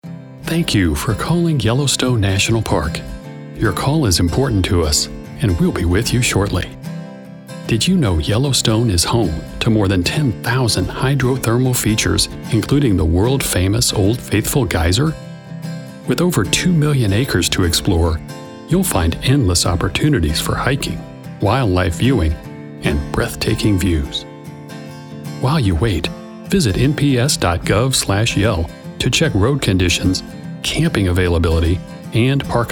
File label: Comforting hold message; voiceover; voiceover professional in St. Louis, Missouri